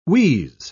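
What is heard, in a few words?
"wheeze", spoken word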